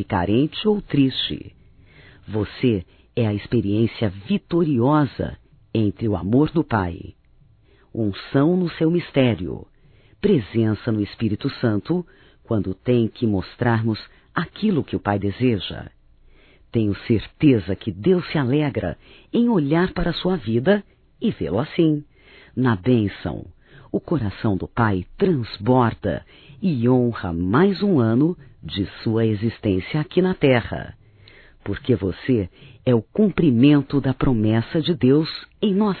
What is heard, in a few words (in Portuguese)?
Aniversário Pastor Feminino; Aniversarios; Telemensagens; Telemensagens 2017